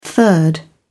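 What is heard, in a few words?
"third", British English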